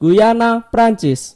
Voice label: "french guiana", id; gcompris-data; geography